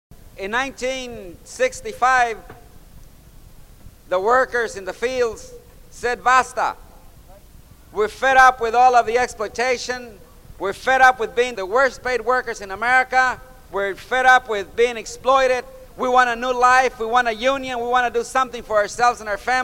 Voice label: Cesar Chavez in English; Freedom Archives; "There's no turning back...We will win. We are winning because ours is a revolution of mind and heart..."